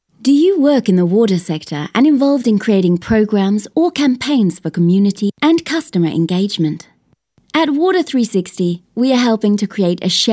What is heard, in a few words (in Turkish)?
İngilizce Kadın